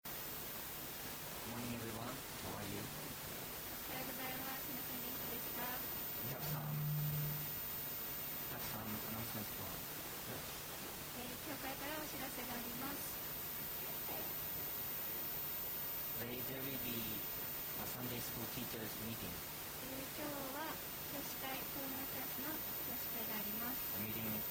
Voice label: announcement; sunday message 2020